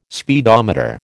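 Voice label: "speedometer", American English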